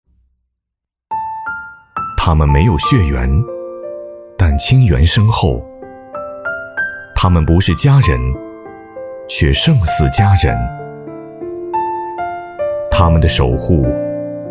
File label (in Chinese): 颁奖配音 讲述温暖, 男; 大气主流; 舒缓抒情